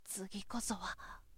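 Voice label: "tugikosoha", boy; shonen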